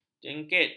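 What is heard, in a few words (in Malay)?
"jengkit", Malay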